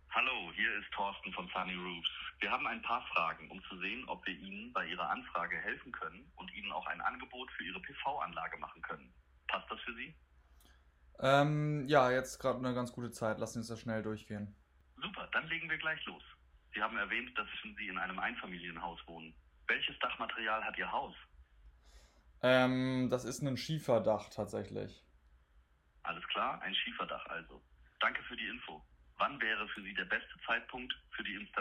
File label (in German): Vorqualifizierung Deutsch